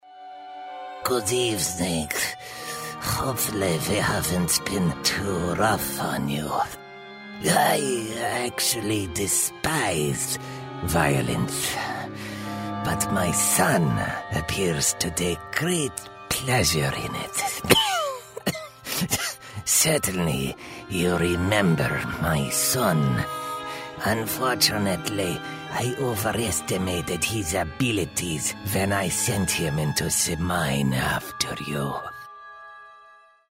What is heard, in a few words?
OLD VILLAIN voiceover